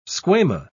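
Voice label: "squama", English, British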